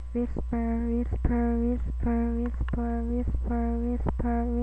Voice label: whisper